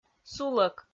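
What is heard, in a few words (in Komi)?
"сулӧк", Komi, female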